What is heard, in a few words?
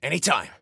Team Anytime, Soldier Male